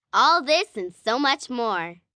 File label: All This And More, Sales Pitch, 1 girl, 9 yrs; Talking Sound Effects